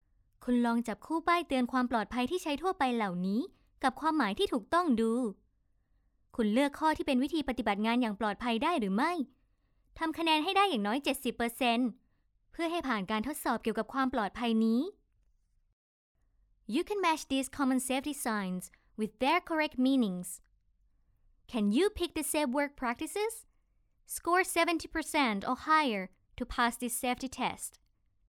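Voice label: E-learning voice-over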